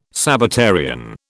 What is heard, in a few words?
"Sabbatarian", British